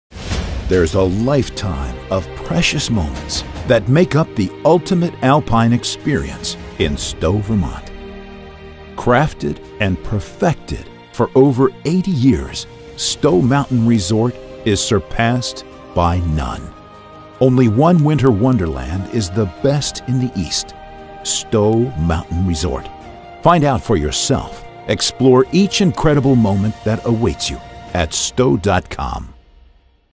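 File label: English US, TV Werbespots Online Werbespots Breites Publikum; NATIVE PROFISPRECHER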